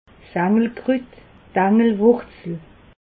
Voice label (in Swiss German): "engelkrut", alsace